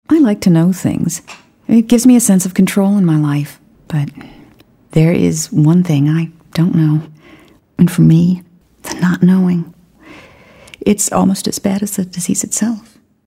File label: concerned